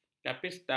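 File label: "kapista", Malay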